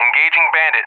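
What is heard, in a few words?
"engaging bandit", English; USA; DCS; Allied Flight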